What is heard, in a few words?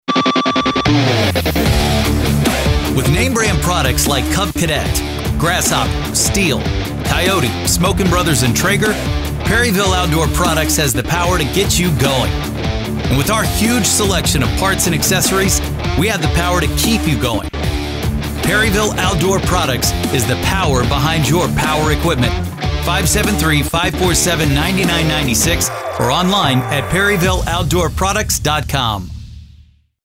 TV Spot